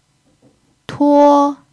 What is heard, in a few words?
"tuo1", chinese